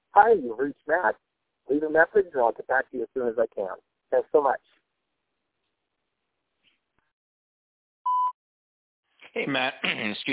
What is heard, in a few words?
voicemail; salesbuzz